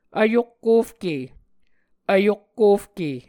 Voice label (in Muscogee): "vyokkofke", Muscogee; Mvskoke Words with Repeated Consonants; Onvkv Ohhvyayices; A Dictionary of Creek/Muskogee; SIL International